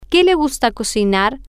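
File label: what does he like to cook, Spanish, female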